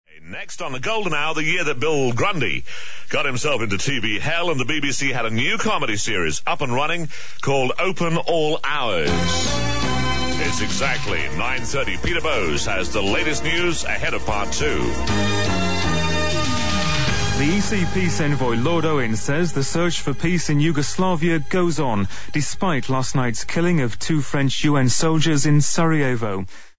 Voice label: news